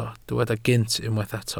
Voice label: "gynt", Welsh

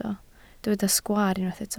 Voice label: "sgwaar", Welsh

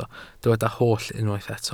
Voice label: "holl", Welsh

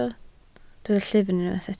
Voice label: "llyfn", Welsh

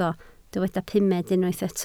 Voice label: "pumed", Welsh

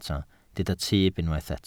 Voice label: "tyb", Welsh

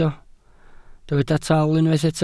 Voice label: "tal", welsh

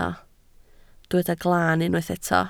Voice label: "glaan", Welsh

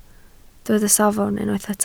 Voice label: "safon", Welsh

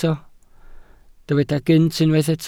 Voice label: "gynt", Welsh

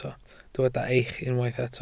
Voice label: "eich", Welsh

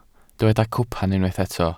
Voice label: "cwpan", Welsh

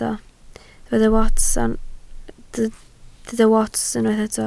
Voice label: "wats", Welsh

